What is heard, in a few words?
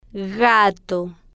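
"gato", slow, Spanish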